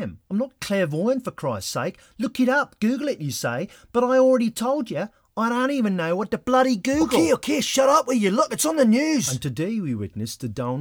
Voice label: CHARACTER LANGUAGE REEL